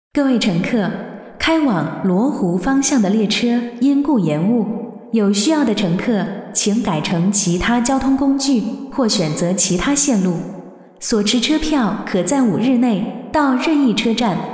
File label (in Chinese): 女 应用播音 地铁报站